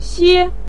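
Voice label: "xue", Mandarin Chinese syllable, neutral tone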